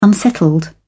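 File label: "unsettled", British English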